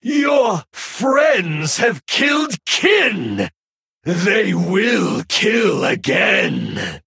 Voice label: Male Night Elf; Hero Void Naralex; Story Guff Mission8 Exchange F

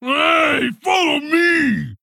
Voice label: Male Orc Attack

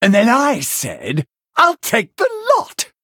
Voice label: Male Venthyr

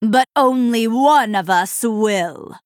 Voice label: Female Night Elf MIRROR START

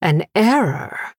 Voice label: Female Blood Elf Oops

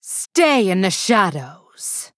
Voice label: Female Blood Elf Emote

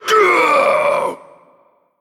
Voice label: Male Forsaken Death

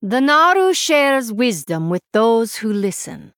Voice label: Female Draenei Idle

